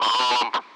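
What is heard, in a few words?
Speech Sound Russian Common Allied Flight; AirDefence; DCS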